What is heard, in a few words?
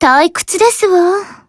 how dull, jp; weiss